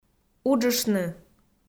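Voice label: "уджыштны", Komi, female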